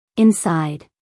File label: "inside", us female